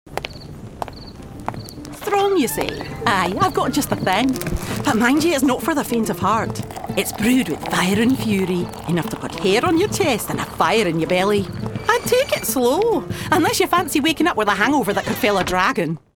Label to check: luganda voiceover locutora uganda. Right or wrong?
Wrong